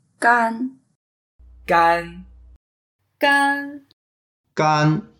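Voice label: "gan1", Mandarin Chinese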